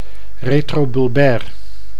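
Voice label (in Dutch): "retrobulbair", Dutch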